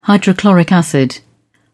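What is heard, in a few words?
"hydrochloric acid", British English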